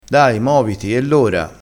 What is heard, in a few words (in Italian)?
"move", crespinese